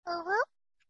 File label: Cute UwU